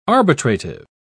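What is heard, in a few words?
"arbitrative", British English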